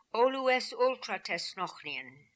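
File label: "Olooessultratesnokhnian", pronunciations; Fourth Way; Beelzebub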